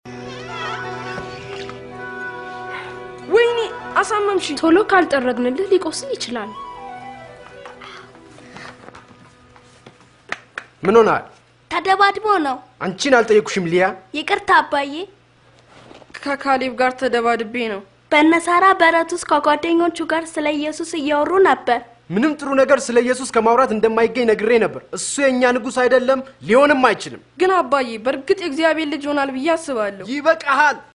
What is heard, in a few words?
amharic; new testament gax oromo borana arsi guji audio bible